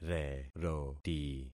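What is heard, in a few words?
"reroti", concatenated syllables; Affixing experiment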